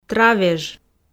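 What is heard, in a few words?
"травеж", Komi, female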